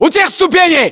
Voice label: landmark near steps, battlechatter ru

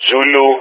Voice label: "Zulu", player speech; Ka-50; Alphabet

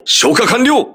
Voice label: fire doused, voice message, crew ships japanese

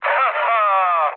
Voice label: trick, speech cze, mood low; War Thunder

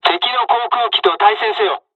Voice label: dialogs japanese; War Thunder

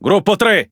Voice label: Speech Pilots Fighter ID, IT; World Of Warships

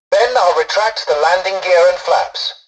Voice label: tutorial dialogue, english; War Thunder

